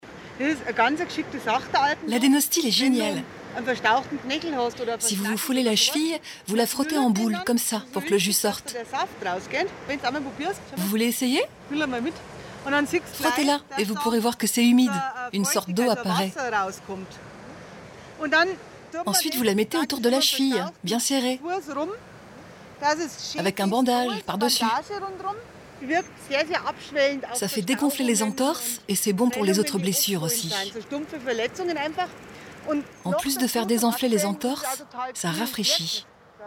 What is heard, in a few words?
Voice over; Ushuaia